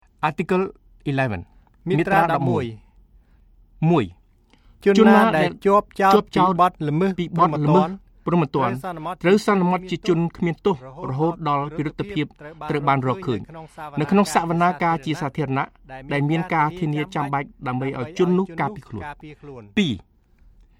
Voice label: Khmer duo